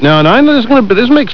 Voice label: gibbrsh